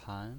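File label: "pan" second tone, pinyin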